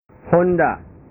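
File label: "honda", Indonesian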